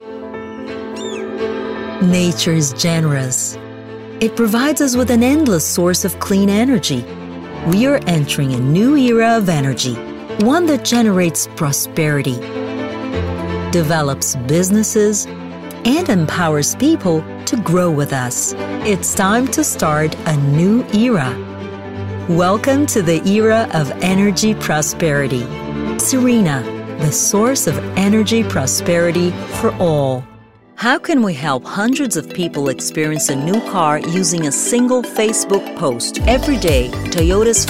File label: Ingles Mix, locutora